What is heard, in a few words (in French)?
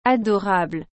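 "adorable", French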